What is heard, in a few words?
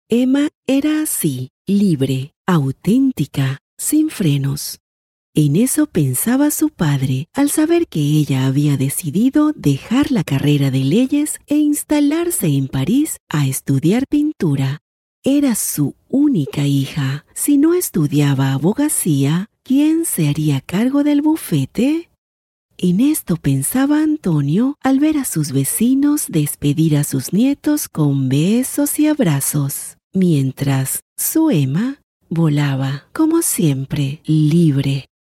latin spanish voice natural